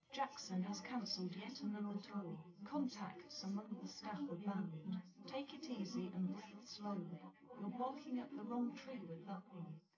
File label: female, live rec